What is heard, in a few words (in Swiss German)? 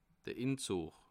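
"inzugg", Bas Rhin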